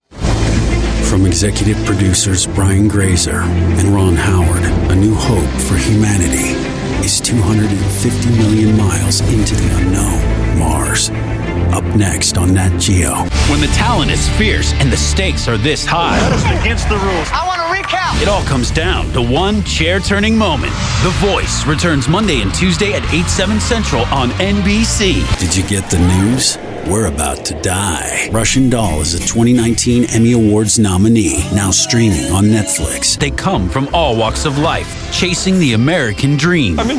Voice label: Promo; Sky Soleil